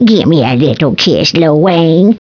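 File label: old lady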